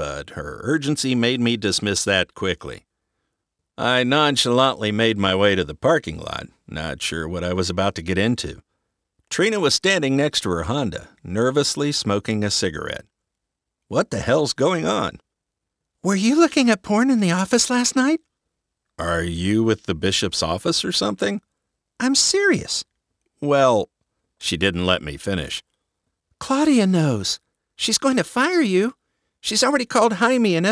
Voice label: Fiction First Person

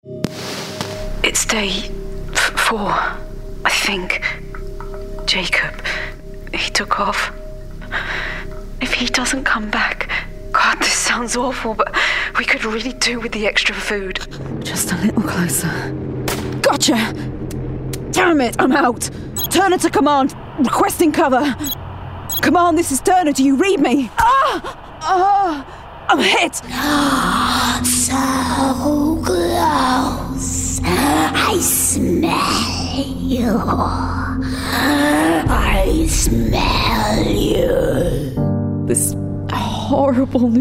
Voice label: Gaming Character Voicereel

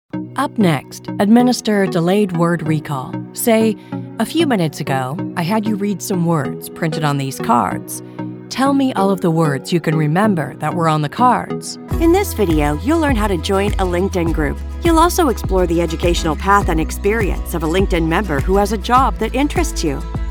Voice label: ELEARNING

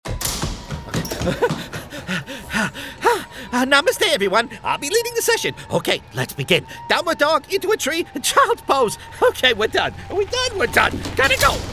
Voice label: Character

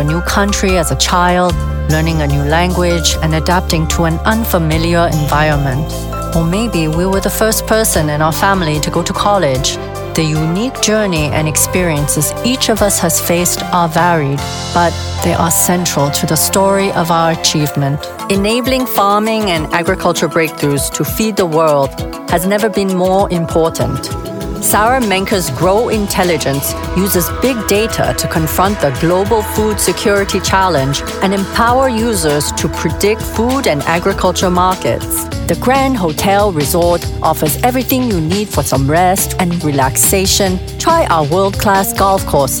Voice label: English with Chinese accent

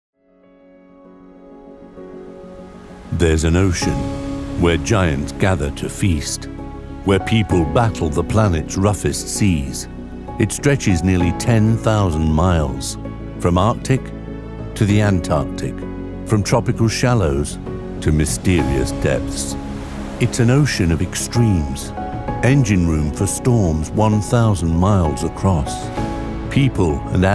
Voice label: Documentary

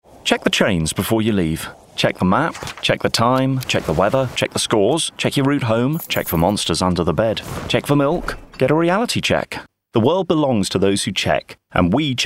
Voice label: commercial voice

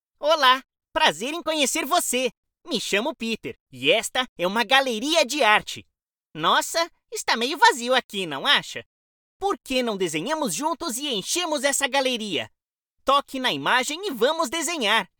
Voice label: Rabbit voice for game

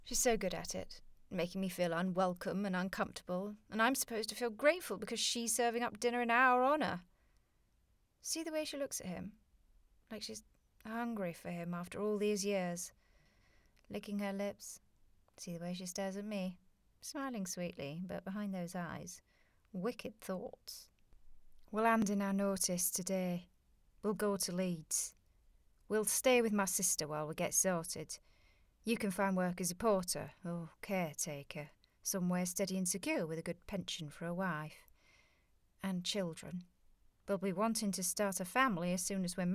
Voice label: drama character

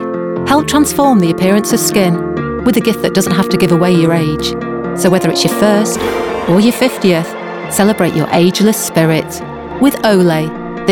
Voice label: COMMERCIAL REEL

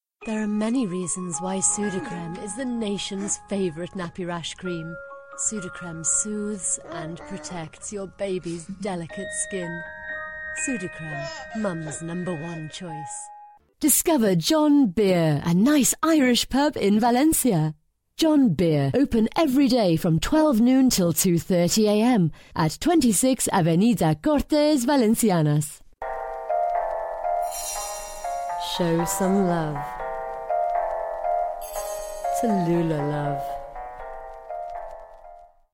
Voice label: TV and radio Commercials

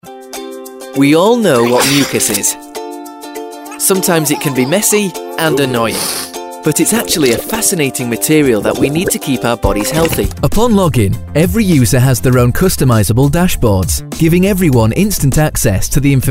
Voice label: Explainer Video Voice Over

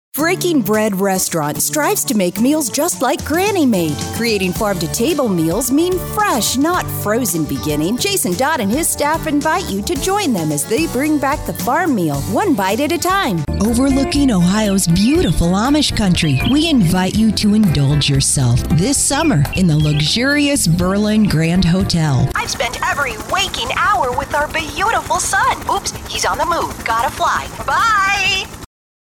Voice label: Regional Commercial

